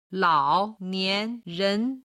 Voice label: "lao nian ren", Chinese